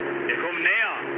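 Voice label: German near waypoint mood med, speech; War Thunder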